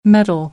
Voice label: "mettle", American; AmericanBritish